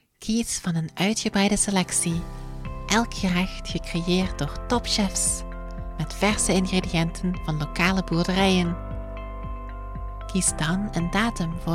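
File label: Dutch, General; GoLocalise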